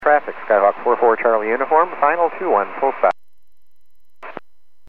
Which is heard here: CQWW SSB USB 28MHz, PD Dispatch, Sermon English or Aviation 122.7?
Aviation 122.7